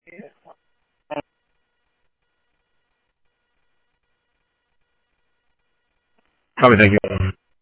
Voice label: Interop Mutual Aid, scanner; H-Scottsdale; G6 Interop